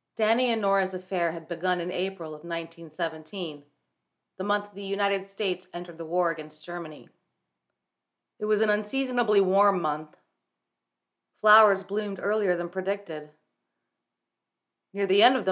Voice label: fiction american general female